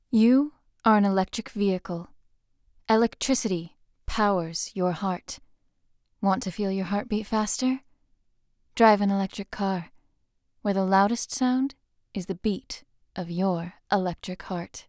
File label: commercial